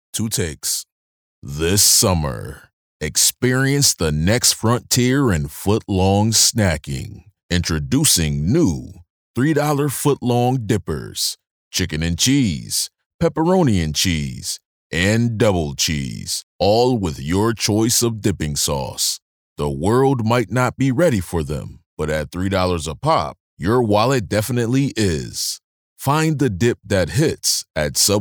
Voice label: Movie Trailer; Subway

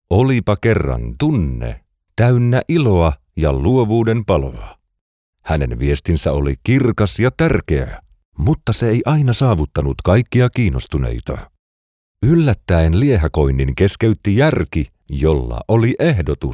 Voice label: Audiobook Storyteller Narration

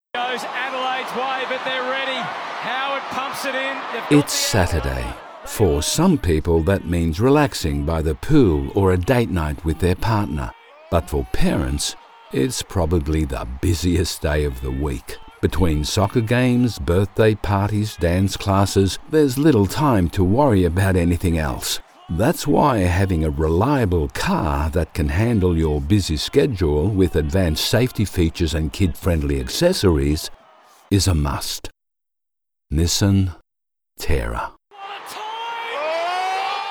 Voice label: Commercial; Chinese (Mandarin)

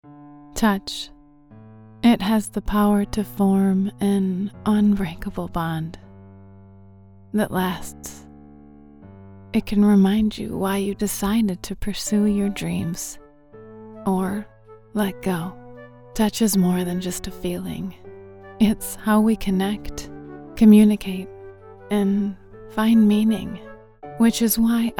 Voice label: TV BEAUTY BRAND

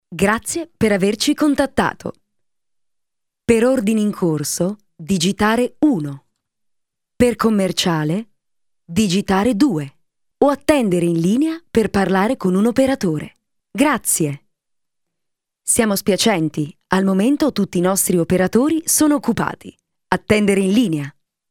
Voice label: Telephone recording system

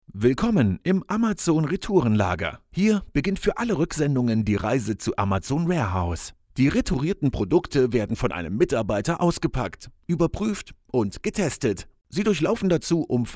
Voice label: Spot Online; Amazon Warehouse

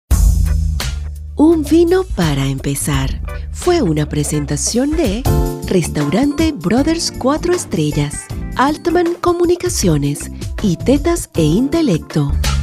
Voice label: Podcastings; English (North American)

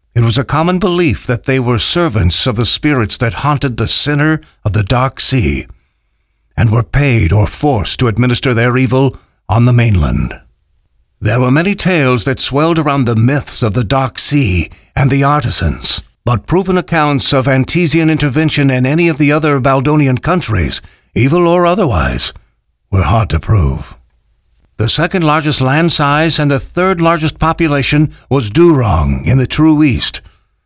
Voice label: Audiobook

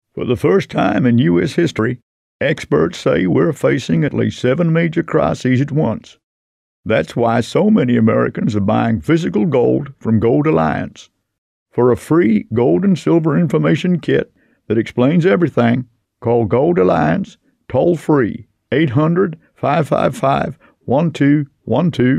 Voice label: Accent character Gold fossicker US sells gold

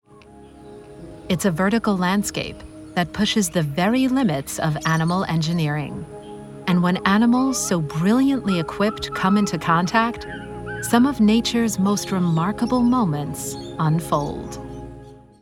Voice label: Documentary

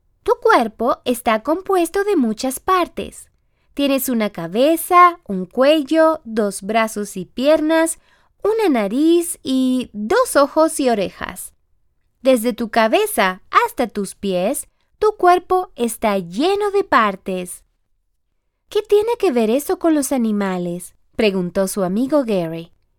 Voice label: Children Audiobook Spanish

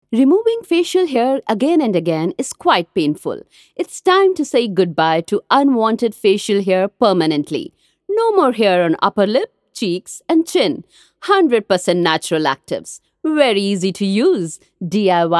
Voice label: ad

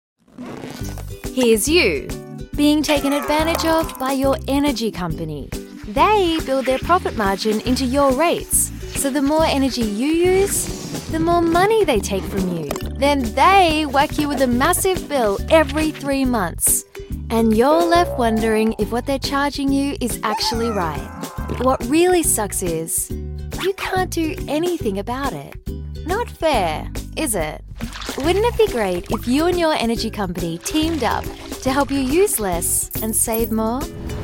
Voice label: Explainer Video

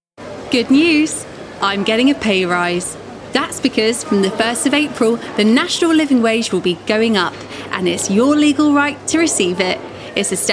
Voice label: Commerical

